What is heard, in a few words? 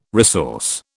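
"resource", British English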